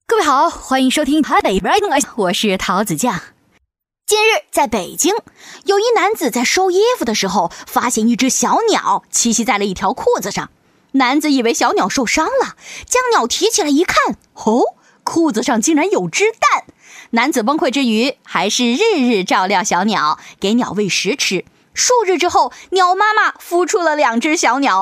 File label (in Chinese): 女-【新闻播报-活泼搞怪】; 飞碟; 幽默搞怪